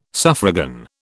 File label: "suffragan", British